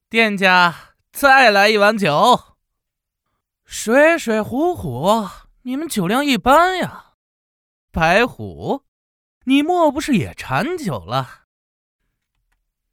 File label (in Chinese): 男 阳光明亮 男青年